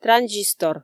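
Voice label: "транзистор", Komi, female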